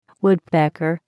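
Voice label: "woodpecker", English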